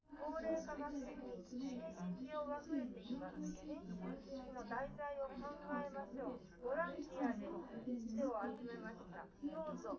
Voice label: female liverec; underdetermined; SiSEC11